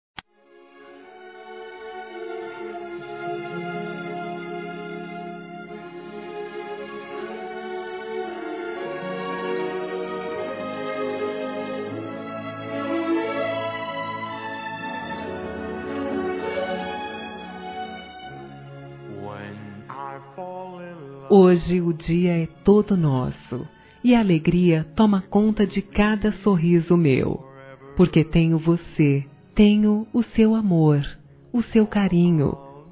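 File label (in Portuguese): GERAL, female, Dia Dos namorados; Telemensagens 2017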